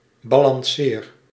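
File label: "balanceer", Dutch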